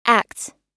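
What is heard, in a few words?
"acts", US English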